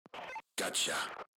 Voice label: enemy down, radio